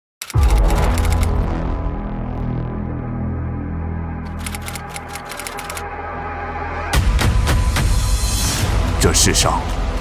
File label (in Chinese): 男 汽车; 驰重